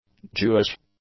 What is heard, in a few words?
"Jewish", english; adjectives